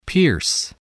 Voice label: "pierce", voice, English